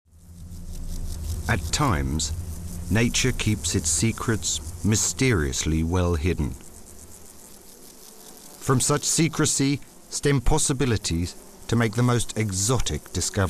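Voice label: straight voice; Maculinea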